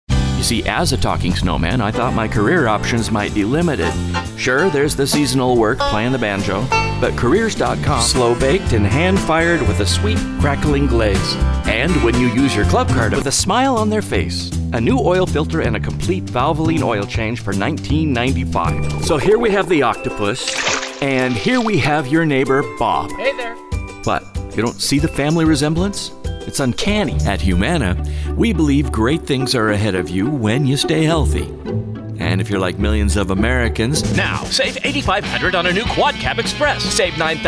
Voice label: Comercial